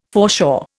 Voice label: "foreshore", Indian